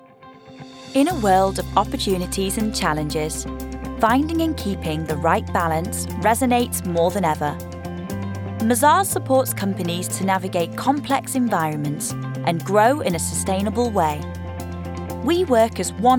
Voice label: Corporate